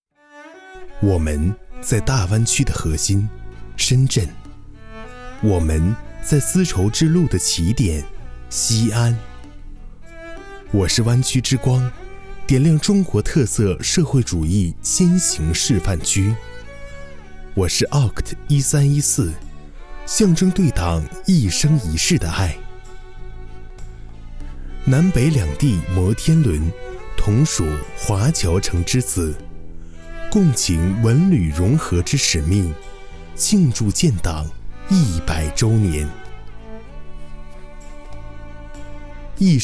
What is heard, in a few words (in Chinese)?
欧式党建地产广告